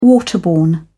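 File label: "waterborne", British English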